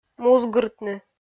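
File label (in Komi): "музгыртны", Komi, female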